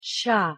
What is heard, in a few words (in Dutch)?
"chá", Portugees; thee-in-andere-talen